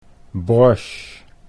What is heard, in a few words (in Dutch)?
"broche", Dutch; sieraden